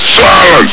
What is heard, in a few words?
"silence!", Combine soldier; hl2